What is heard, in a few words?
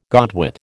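"godwit", American English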